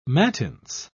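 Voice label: "mattins", British English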